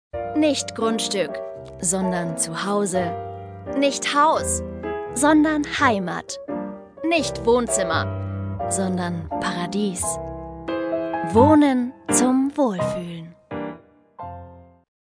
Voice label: GERMAN